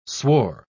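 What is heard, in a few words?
"swore", English pronunciation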